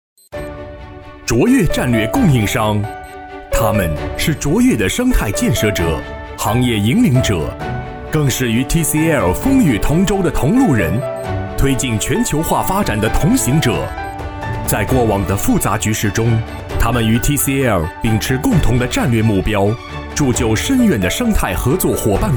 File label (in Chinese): 男, 颁奖